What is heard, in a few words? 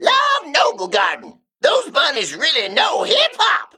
Male Mech Emote Happy Noblegarden